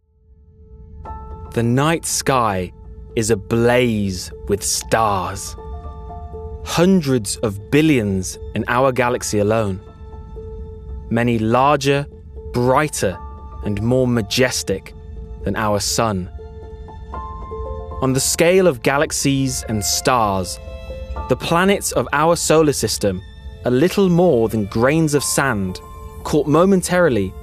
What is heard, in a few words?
Documentary, regional accents